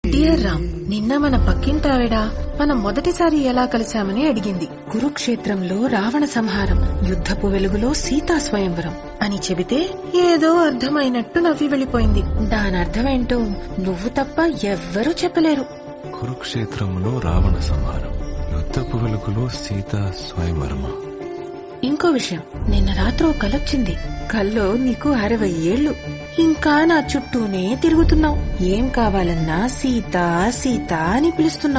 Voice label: dialogue, Tamil; Seetha Ramam; Mrunal Thakur

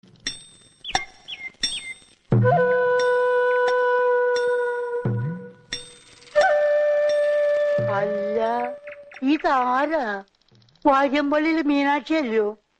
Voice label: comedy Malayalam dialogue; sreenivasan

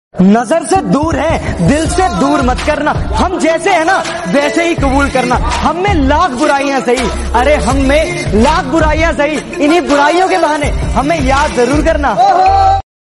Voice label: attitude dialogue

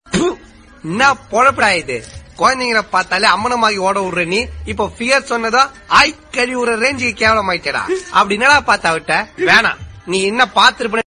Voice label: comedy tamil dialogue; vadivelu

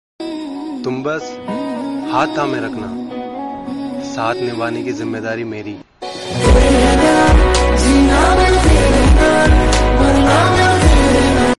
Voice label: romantic shayari hindi

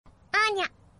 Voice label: charming voice

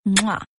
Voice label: english

